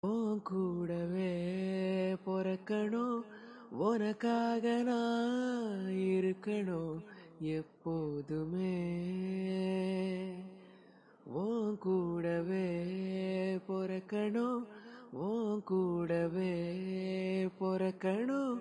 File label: Sister Birthday Wishes Tamil